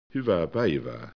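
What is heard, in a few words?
"paivaa", Finnish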